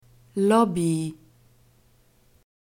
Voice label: "lobby", german

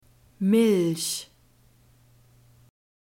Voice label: "milch", german; soft drinks new words

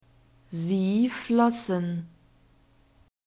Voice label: "sie flossen", German; verbs past tense irregular

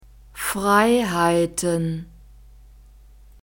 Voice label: "freiheiten", German

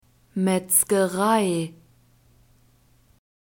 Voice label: "metzgerei", german; nouns gender feminine

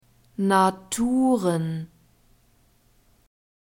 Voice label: "naturen", german

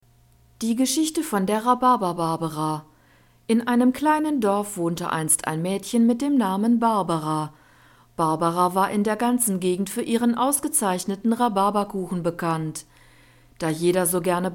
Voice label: tongue twisters, German; rhabarberbarbara

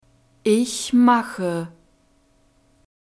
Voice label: "ich mache", German

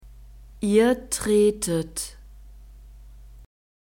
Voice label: "ihr tretet", German; verbs present tense irregular

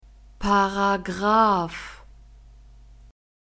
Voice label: "Paragraph", German; masculine

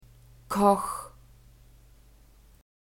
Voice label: "koch", german; phonetic script grouped consonants

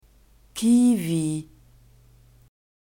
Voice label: "kiwi", german; fruits

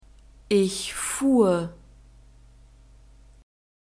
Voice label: "ich fuhr", German; verbs past tense irregular

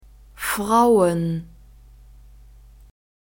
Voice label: "frauen", German

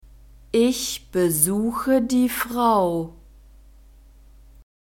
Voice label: "ich besuche die frau", German

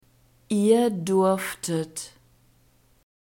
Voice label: "ihr durftet", German; verbs past tense irregular

